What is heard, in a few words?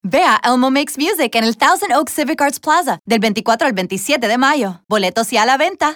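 Spanish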